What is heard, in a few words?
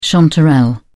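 "chanterelle", British English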